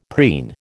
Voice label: "preen", American